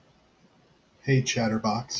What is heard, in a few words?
hey chatterbox, en-us